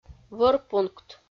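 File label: "вӧрпункт", Komi, female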